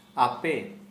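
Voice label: yall, Santali, male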